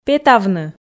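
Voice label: "петавны", Komi, female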